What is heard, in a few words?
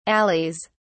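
"alleys", British English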